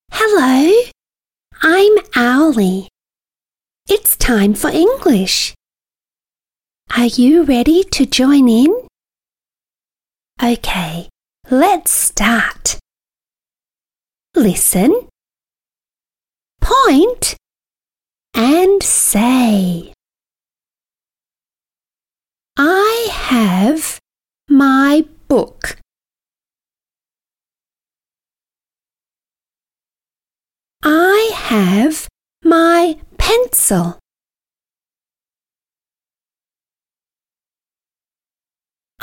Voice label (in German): englisch hoeruebung; mini-spatzenpost